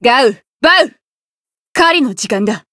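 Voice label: Voice encounter Japanese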